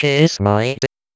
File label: Vocoder Phrases; Vengeance Samples